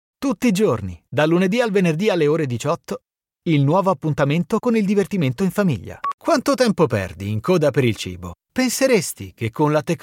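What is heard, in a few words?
italian voice commercial, male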